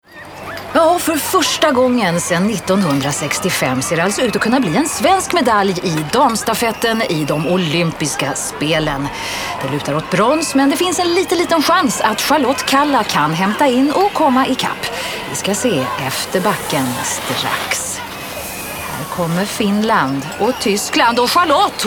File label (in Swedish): Svensk Kvinnlig Sportkommentator